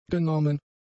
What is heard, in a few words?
"genommen", German; adjectives